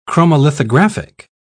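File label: "chromolithographic", British English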